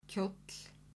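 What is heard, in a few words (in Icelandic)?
"kjóll", Icelandic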